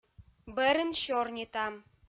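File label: "бӧрын сёрнитам", Komi-Zyrian, female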